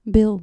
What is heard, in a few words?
"bill", dutch